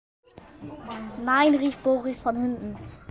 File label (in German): Sprachmemo